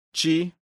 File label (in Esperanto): "cxi", esperanto; leciono1